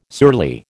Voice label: "surly", American